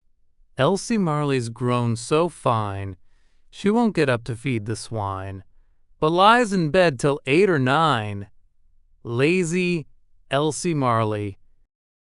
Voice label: male US; Elsie Marleys Grown So Fine